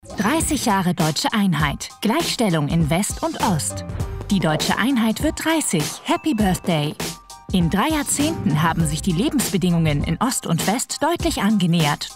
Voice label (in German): Erklaerfilm; Hans-Boeckler-Stiftung